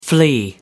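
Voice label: "flee", English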